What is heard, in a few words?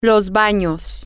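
the bathrooms, Spanish, female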